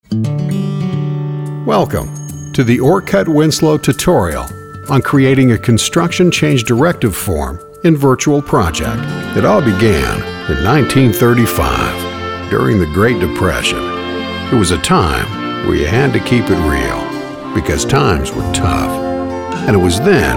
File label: industrial narration vo